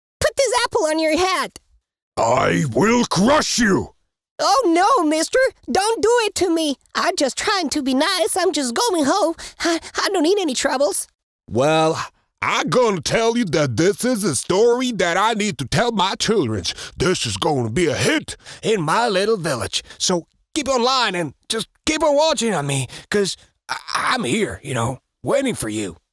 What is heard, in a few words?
cartoon